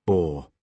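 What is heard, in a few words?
"bore", British English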